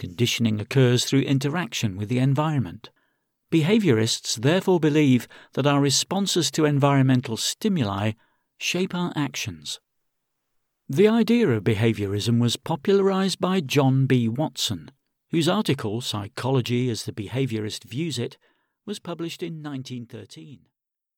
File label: e-Learning